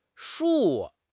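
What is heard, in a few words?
"shu4", Mandarin Chinese; HSK3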